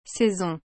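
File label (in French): "saison", French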